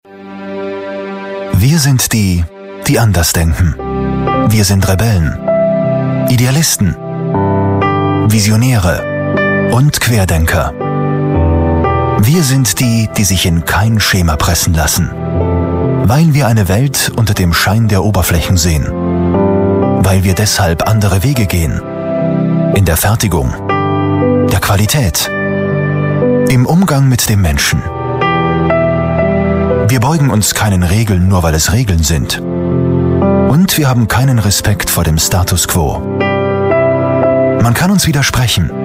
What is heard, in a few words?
german voice over male narrator